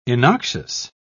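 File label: "innoxious", British English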